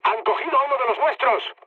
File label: aircraft destroyed mood med; speech; War Thunder